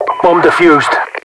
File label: bombdef, radio; codmod